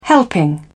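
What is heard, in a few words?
"helping", British English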